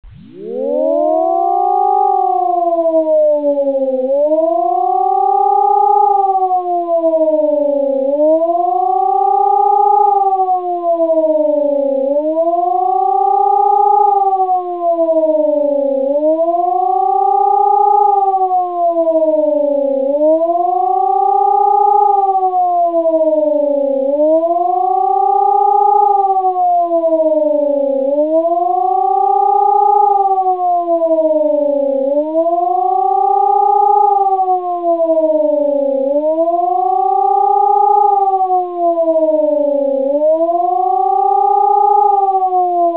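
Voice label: Battle Cry Soldier Female; BOZEN Alarm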